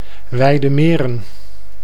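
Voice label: "Wijdemeren", Dutch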